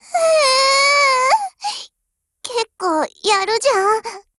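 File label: BREAK, Japanese; GF Alarm; M4 SOPMOD II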